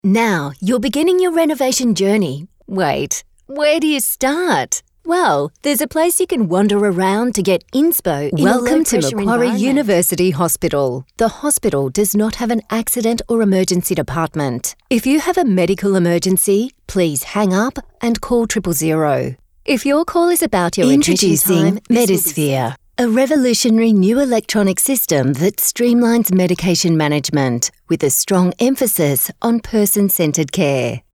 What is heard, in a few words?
Natural